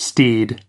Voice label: "steed", us

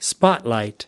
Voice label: "spotlight", us

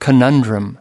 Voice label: "conundrum", us

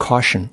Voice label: "caution", us

